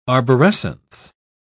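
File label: "arborescence", British English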